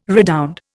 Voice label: "redound", Indian